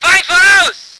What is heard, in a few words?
voice ger vehicle